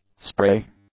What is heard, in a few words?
"spray", english; nouns